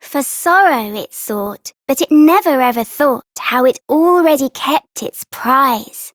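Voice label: Child Rhymes Sad Child Female; Duviri; Childrens Rhymes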